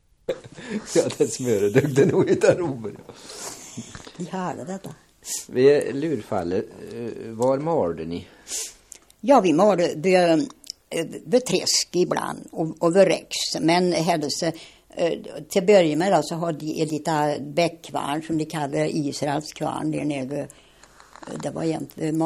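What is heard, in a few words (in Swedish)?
Gunnarskog Värmland, Svenska sagor, dialekter; Folkminnen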